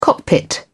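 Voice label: "cockpit", English, British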